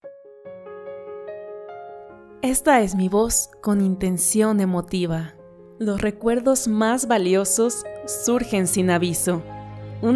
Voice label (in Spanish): Emotiva Musicalizado, locutora